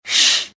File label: "shiin", Arabic